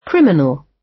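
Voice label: "criminal", British; Crime and punishment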